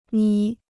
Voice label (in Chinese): "眯", Chinese